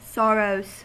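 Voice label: "sorrows", US English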